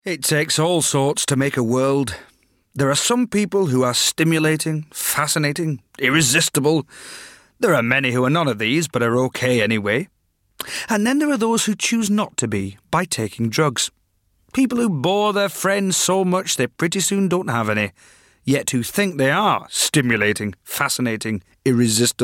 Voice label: Advert; Know The Score